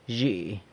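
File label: "zhi", nose, San Lucas Quiaviní Zapotec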